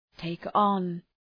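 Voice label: "take on", English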